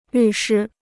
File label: "律师", Chinese